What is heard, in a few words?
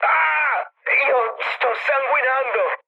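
Italian damaged pilot mood low, speech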